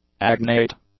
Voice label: "agnate", English; adjectives

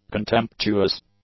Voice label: "contemptuous", English; adjectives

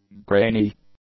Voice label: "grainy", english; adjectives

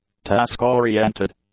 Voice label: "task-oriented", English; adjectives

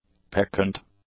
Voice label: "peccant", English; adjectives

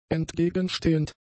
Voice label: "entgegenstehend", German; adjectives

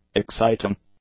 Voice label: "exciting", english; adjectives

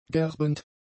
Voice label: "gerbend", German; adjectives

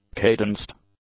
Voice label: "cadenced", English; adjectives

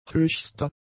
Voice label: "höchster", German; adjectives

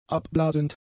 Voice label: "abblasend", German; adjectives

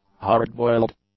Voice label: "hardboiled", English; adjectives

